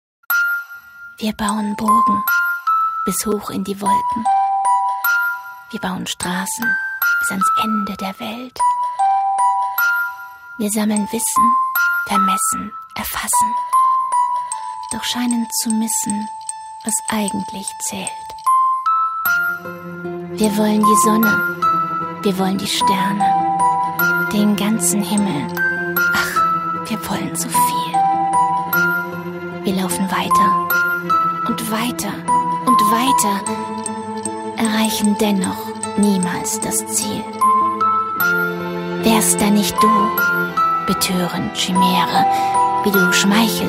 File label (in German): Kommentar, frauen; SolaceInInsanity